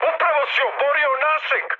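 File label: Serbian player killed ally mood high, speech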